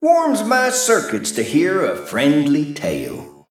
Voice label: Male Mech Flavor

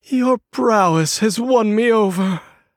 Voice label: Male Human Well Played

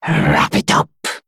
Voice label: Female Mummy Troll Attack

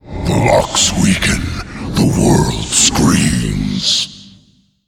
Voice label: Male Faceless Play

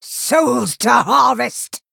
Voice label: Female Venthyr Attack

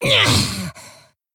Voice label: Female Geist Attack

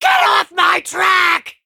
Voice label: Male Imp Attack

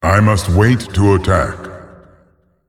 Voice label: Male Beast

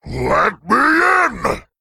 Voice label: Male Worgen Attack

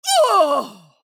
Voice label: Female Dwarf Death

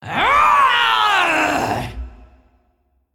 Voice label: Female Dragon

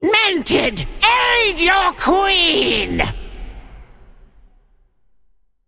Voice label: Female Old God Creature Play

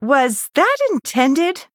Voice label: Female Blood Elf Oops

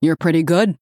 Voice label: Female Human

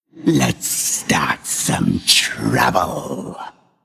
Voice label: Male Undead

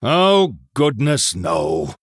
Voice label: Male Human Oops

